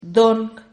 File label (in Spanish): "dong", Silabas